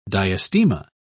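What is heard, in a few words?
"diastema", British English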